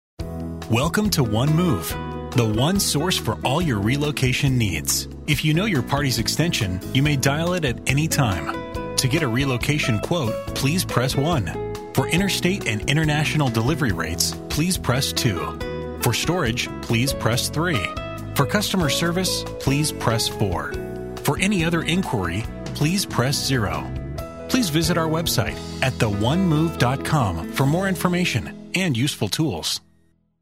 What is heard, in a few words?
Phone